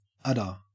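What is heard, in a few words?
"udder", Australian English; pronunciations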